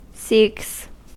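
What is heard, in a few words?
"seeks", American English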